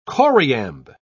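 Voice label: "choriamb", British English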